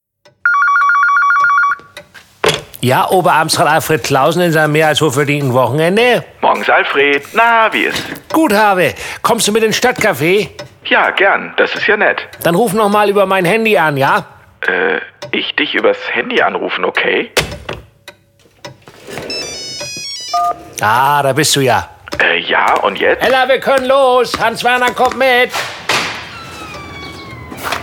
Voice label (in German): am Telefon; WE Stadtcafe; Baumann und Clausen